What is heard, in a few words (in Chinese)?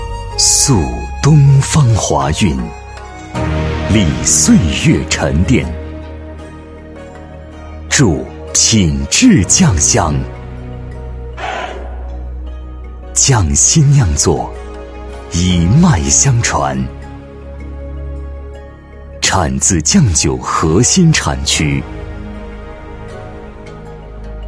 男 酒; 贵州安酒